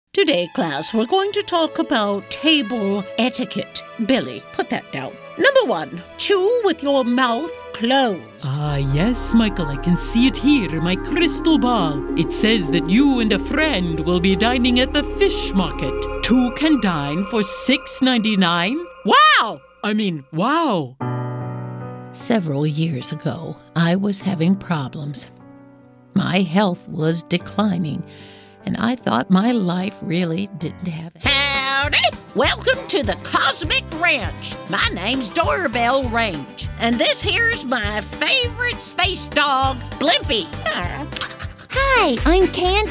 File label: animation character; 2023 animation - character demo